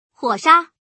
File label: sha fire, female